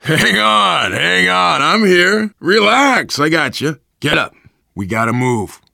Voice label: revive friend, voice, biker; Left 4 Dead 2; left4dead2 dlc3; survivor